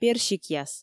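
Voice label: "персикъяс", Komi, female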